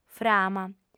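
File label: "frama", Sardinian, female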